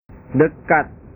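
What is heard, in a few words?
"dekat", Indonesian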